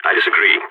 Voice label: disagree, vo balkan; Counter-Strike Global Offensive